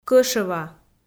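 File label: "кӧшӧва" Komi, female; kpv audio dict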